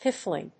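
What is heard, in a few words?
"piffling", English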